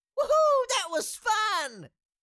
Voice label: Cartoon little child voice woohoo that was fun

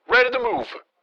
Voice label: Military radio voice command; ready to move

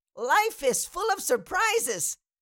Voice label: Cartoon little child voice

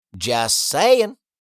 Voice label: Just saying meme voice male

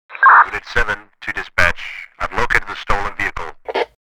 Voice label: Police radio chatter stolen vehicle located

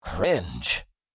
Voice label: Cringe meme voice male

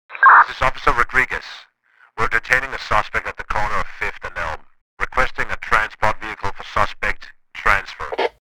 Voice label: Police radio chatter transfer suspect

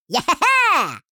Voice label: Minion excitement voice; fantasy friends

